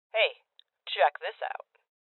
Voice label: Voices Loot Spotted Female; rebel cops 2019 gamerip